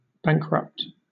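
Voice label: "bankrupt", British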